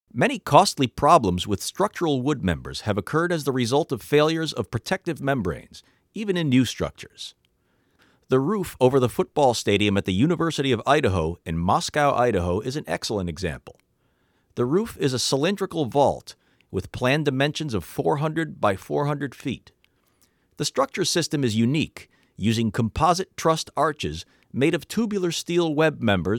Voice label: technical read